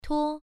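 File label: "tuo", Mandarin Chinese syllable, first tone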